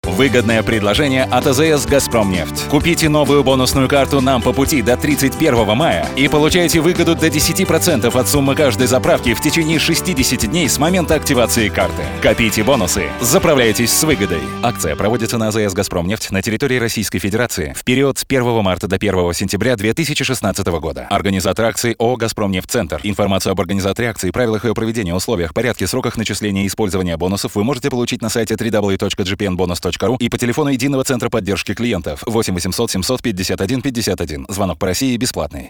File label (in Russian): аудиореклама сети АЗС запись голоса; ГАЗПРОМНЕФТЬ Нам по пути; монтаж сведение